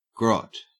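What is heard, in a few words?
"grot", Australian English; pronunciations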